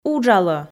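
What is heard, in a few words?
"уджалӧ", Komi, female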